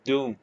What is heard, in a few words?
short voyels arabic alphabet